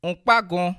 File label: sorry, bassa